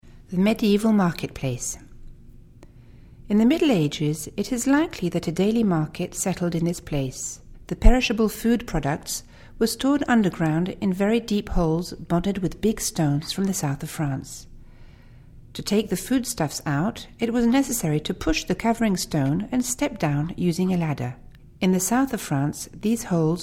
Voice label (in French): ANGLAIS; La place du marche medieval